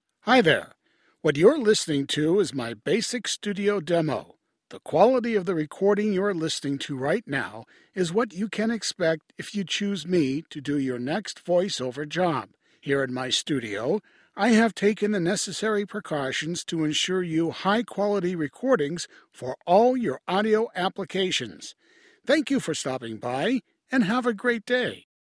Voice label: Natural Speak